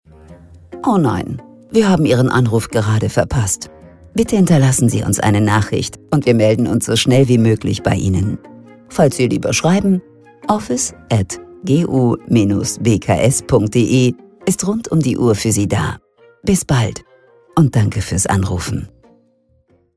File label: IVR DE verpasst; Telefonansage; deutschen Demi Moore Stimme